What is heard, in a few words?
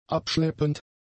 "abschleppend", German; adjectives